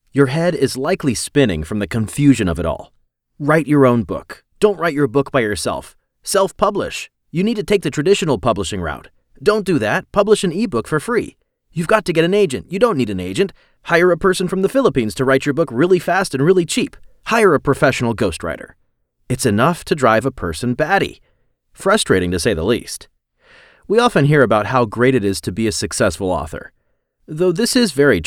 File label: AUDIOBOOK NARRATION